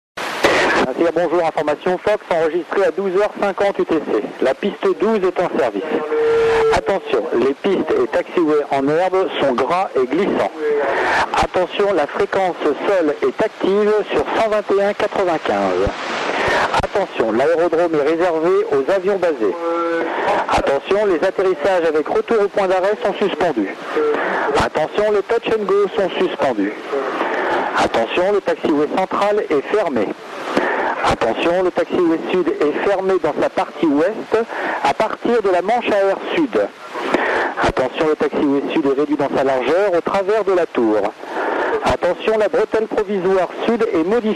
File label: ATIS LFPZ